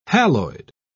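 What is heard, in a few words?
"haloid", British English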